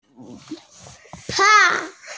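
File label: "pang4", Mandarin Chinese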